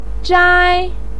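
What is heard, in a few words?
"zhai1" Chinese syllable, pinyin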